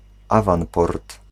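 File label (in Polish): "awanport", Polish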